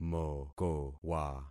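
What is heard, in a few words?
"mokowa", concatenated syllables; Affixing experiment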